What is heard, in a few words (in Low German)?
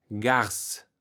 "Garss", Platt